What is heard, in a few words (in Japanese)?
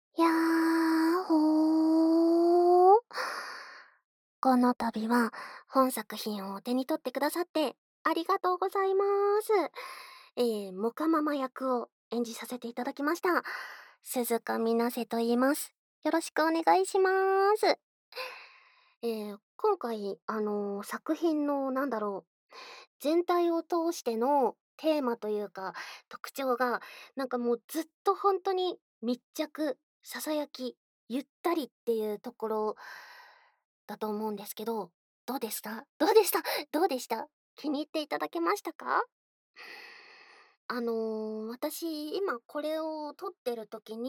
フリートーク